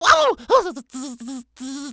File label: mario shocked; smsunshine